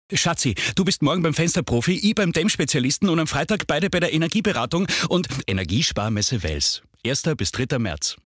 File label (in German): Mann